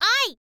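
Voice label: "i", Japanese, girl; voice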